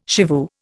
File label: "shivoo", Indian